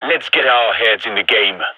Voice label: pep talk; vo; gign

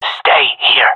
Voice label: request hold, gendarmerie male; vo

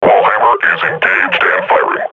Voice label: firing, combine grunt; Half Life Model Pack

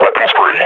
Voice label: announce attack, combine grunt

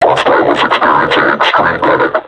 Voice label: taunt, vo combine suppressor; Half Life Alyx

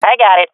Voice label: defusing, swat fem; vo; Counter Strike Model Pack; CS:GO

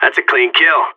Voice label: nice shot, vo agents seal; Source Model Packs; Counter Strike Model Pack; CS2

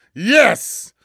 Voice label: "yes", vortigaunt; Half Life 2; Episode 2

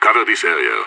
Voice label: radio bot hold, idf; vo

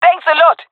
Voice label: thankful, jungle fem; vo; agents; Source Model Packs; Counter Strike Model Pack; CS2; jungle fem epic

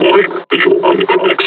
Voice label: announce enemy zombie; combine charger; Half Life Alyx

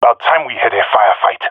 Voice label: attacking, jungle male; vo; agents; Source Model Packs; Counter Strike Model Pack; CS2; jungle male epic

